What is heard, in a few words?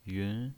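"yun" Mandarin Chinese pinyin voice, second tone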